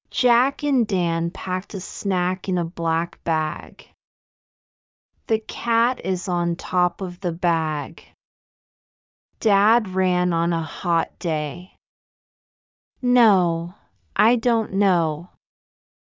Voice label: Practice Sentences, english pronunciation lesson